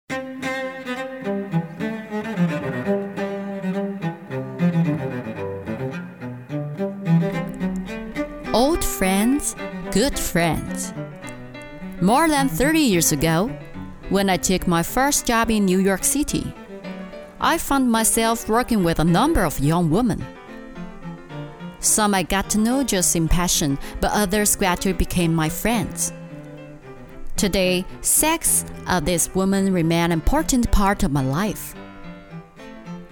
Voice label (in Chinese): 英文独白配音